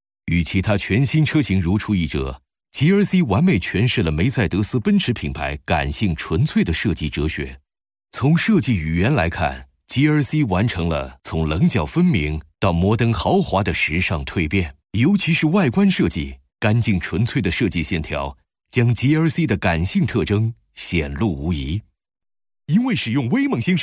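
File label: M, Mandarin, male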